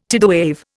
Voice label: "tidewave", Indian